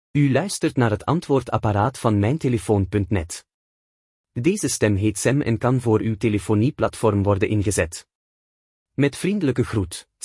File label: Voice Prompts, BE; Voiceprompt voorbeeld